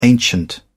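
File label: "ancient", English, British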